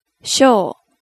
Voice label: "shou", hiragana